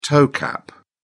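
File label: "toe cap", British English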